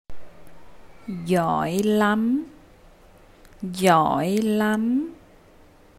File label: Well done, vietnamese; Appreciation